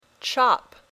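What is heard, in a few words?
"chop", English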